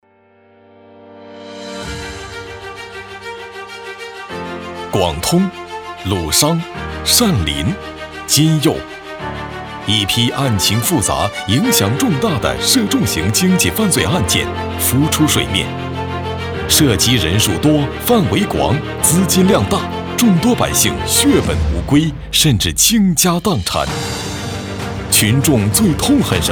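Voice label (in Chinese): 专题片法制类大气力度严肃坚定, 男配音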